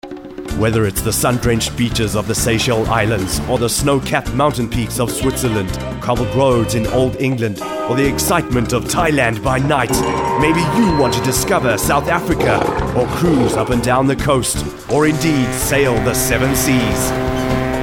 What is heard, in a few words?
Radio AD